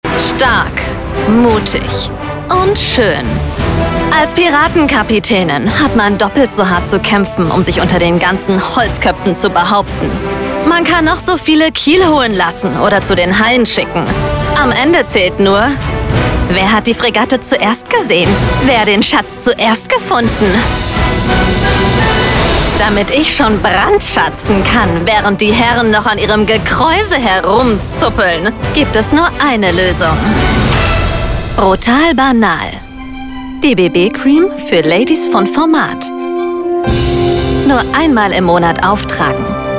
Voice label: werbung piratin

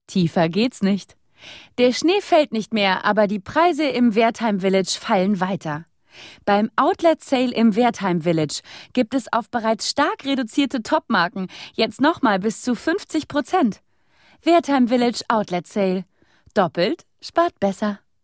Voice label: werbung mode

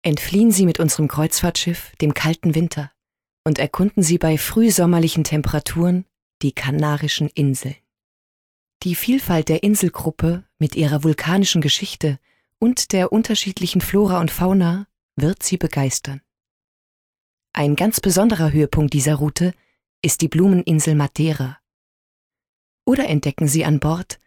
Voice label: sprachprobe werbung

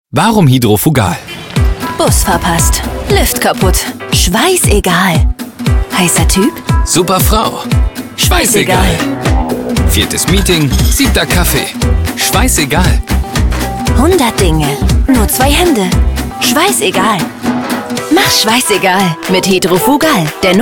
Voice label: tv spot schweiss egal; hidrofugal 2015

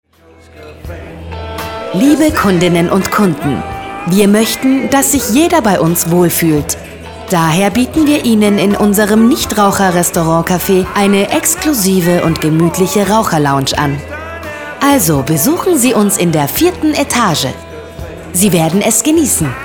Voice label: werbung deu engl tuerk; r-lounge

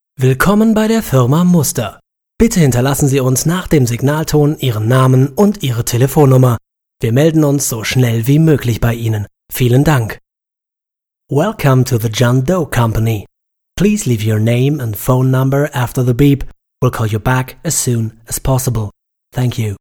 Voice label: anrufbeantworter

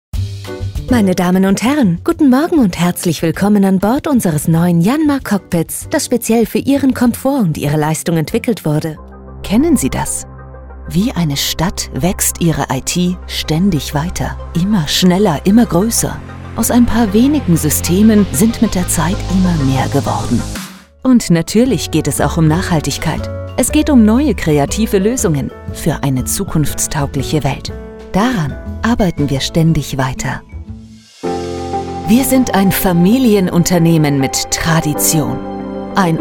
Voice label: imagefilm

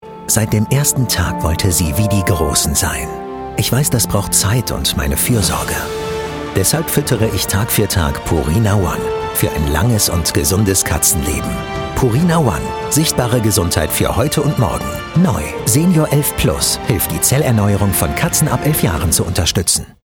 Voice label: werbung tv; purina

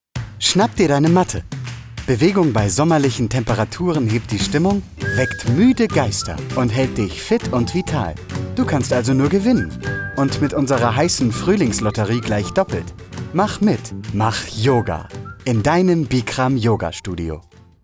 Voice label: Werbung Yoga

